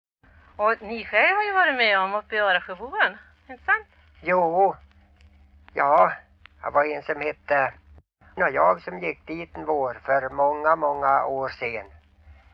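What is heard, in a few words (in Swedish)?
Ljustorp Medelpad, dialekter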